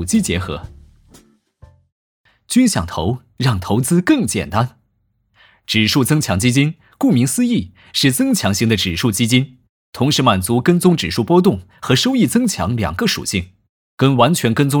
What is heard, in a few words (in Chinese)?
男 mg产品介绍 基金